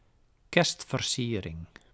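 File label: "kerstversiering", Dutch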